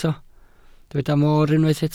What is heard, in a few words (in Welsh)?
"moor", Welsh